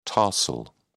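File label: "tarsal", British English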